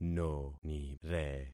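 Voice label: "nonire", concatenated syllables; Affixing experiment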